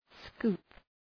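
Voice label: "scoop", English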